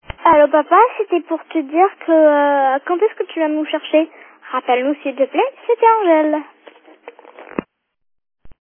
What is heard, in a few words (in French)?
message telephoniques